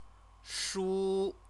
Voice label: "shu", Chinese